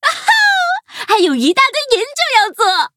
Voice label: levelup, zh-hant; cookie run